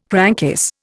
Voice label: "crankcase", Indian